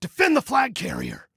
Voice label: Defend Flag Carrier, Male